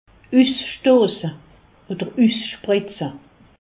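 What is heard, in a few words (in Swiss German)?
"usstosse", Alsatian; medecine; éjaculer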